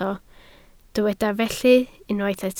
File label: "felly", Welsh